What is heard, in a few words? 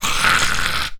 Zombie